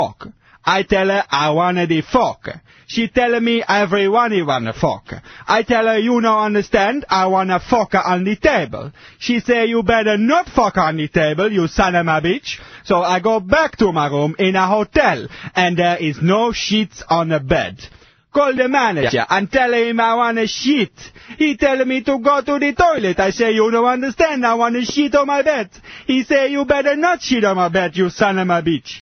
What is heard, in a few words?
crazy italian dude tune